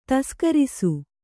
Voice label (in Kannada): "ತಸ್ಕರಿಸು", kannada